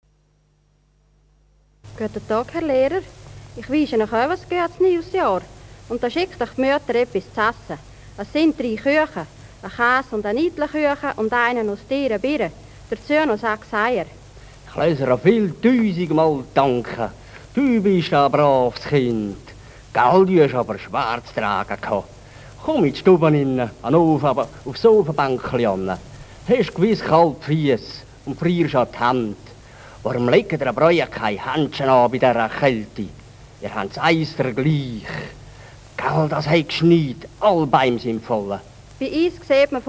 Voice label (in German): Schächental UR